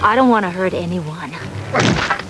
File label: hurt